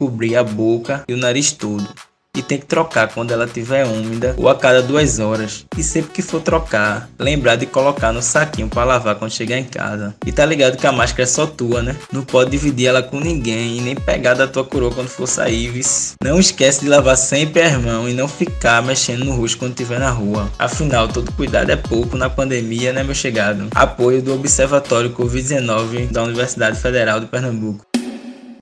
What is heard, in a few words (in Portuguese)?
SPOT PRECISO USAR MASC